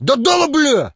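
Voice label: attack, Ukrainian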